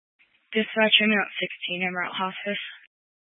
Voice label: Fire Dispatch, scanner